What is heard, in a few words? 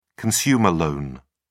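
"consumer loan", British English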